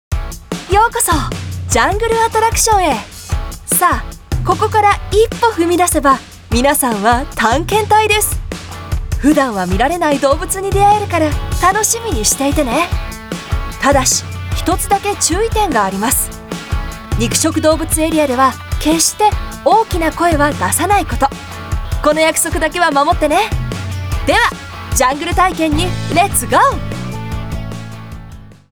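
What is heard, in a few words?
Japanese Female Guide Energetic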